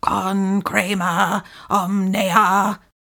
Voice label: concrema omnia commanding, incantations older female fire spells